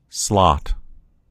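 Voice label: "slot", American English; gcompris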